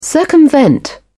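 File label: "circumvent", British English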